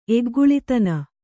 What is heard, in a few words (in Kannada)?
"ಎಗ್ಗುಳಿತನ", Kannada